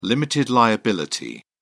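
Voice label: "limited liability", British English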